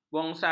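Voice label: "bonsai", Malay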